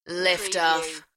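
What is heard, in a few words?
UK female, DJ vocals; Lift off; beanstalk audio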